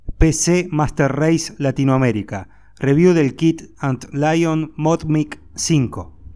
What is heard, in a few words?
antlion modmic test